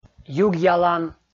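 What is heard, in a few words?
"югъялан", Komi, male; kpv audio dict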